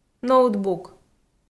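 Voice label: "ноутбук", Komi-Zyrian, female; audio dict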